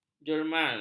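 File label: "jermal", Malay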